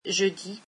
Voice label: french Thursday